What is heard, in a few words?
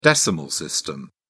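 "decimal system", English, British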